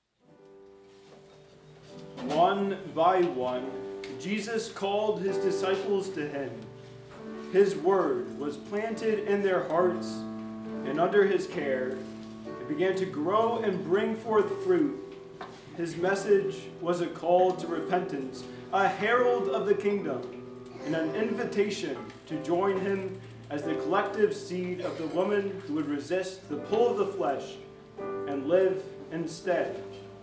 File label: Narration; God s word planted in their hearts